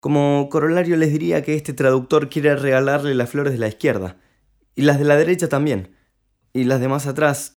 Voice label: Linea, Espanol

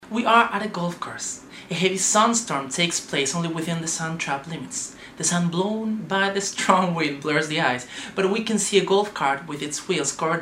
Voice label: Hombres Ingles; Casting Impulse

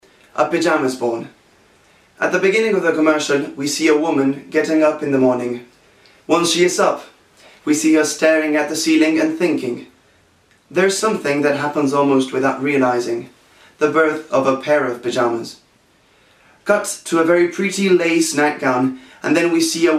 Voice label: Hombres Ingles; Casting Impulse

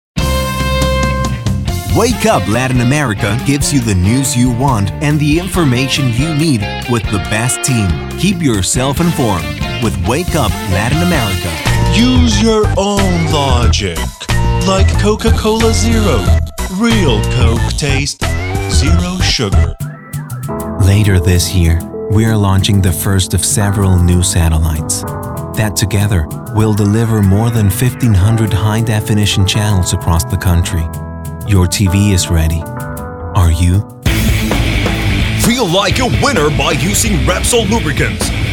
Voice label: Ingles, Hombres; Casting Impulse

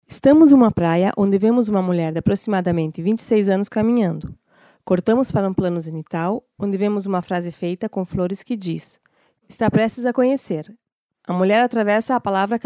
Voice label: Mujeres Portugues; Casting Impulse

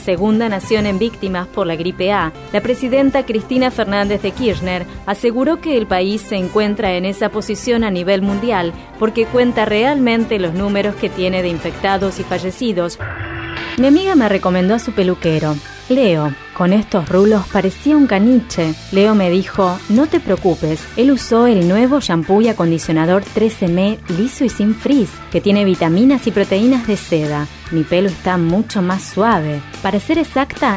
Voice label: Mujeres, Locutoras; Casting Impulse

